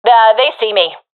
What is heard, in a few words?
takingfire, professional fem; vo; Counter Strike Model Pack; CS:GO